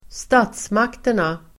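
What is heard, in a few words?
"statsmakterna", Swedish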